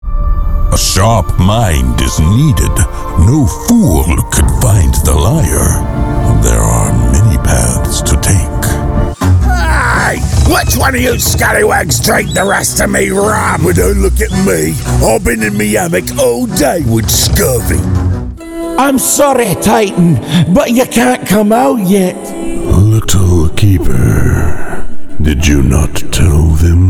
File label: UK Accents Fantasy Character